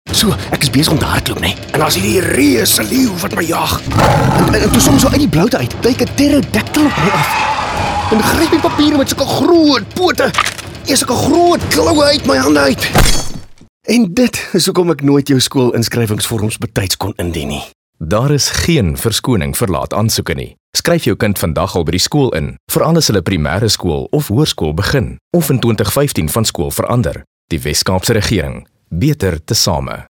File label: Enrolment Radio Afr